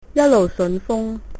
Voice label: bon voyage, cantonese